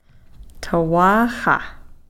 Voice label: "thawaaxa", younger sister

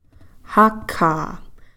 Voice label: "ka'khaa", goose

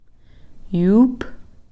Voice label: "yuuph", woman's basket cap

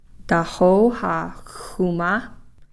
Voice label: "tahoowxa-xuma", dinner

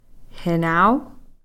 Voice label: "hinau", Cow Creek

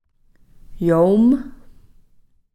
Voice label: "yoom", blood

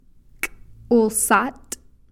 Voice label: "k'ulsath", soft

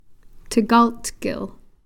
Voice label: "thkalthkil", Cow Creek; belly

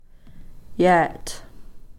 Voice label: "yeeth", tear(s)

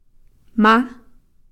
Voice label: "ma", you (singular)

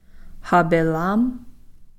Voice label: "hapilam", Cow Creek; empty

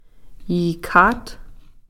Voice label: "yiikhath", Cow Creek

